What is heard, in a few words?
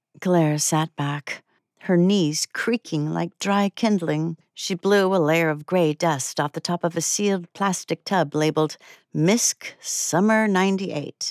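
Family Drama M F Tender moment in a long marriage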